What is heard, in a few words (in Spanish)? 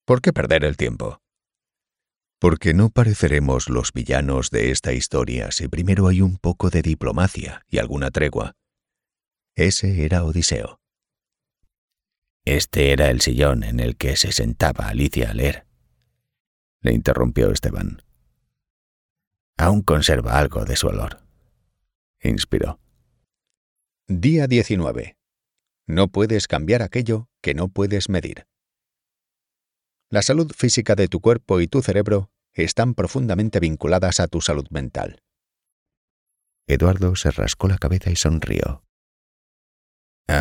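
spanish audiobooks, Español voice actor, Una voz grave; requiera transmitir seguridad; Audiolibros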